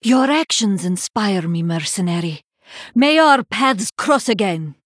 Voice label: Female Draenei Bark; Yrel

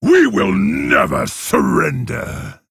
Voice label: Male Orc Idle; Blademaster; Uther

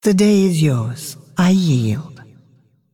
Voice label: Female Dragon Concede

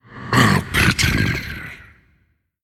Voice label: Male Faceless Attack

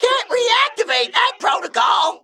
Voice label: Male Mech Emote ERROR I ATTACKED

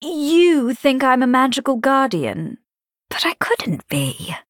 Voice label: Female Night Elf

